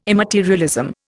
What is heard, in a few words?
"immaterialism", Indian